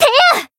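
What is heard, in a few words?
attack, Japanese; flammelapis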